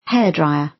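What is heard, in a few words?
"hairdryer" British; Technology; Công nghệ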